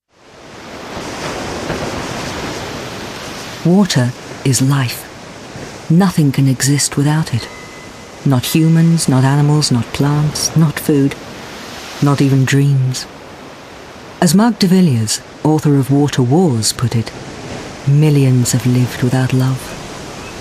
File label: Narrative; Water